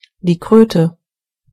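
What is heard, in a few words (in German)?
"toad", German; gcompris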